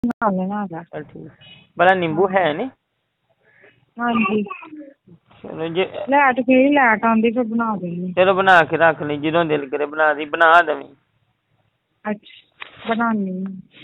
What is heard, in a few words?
Call recording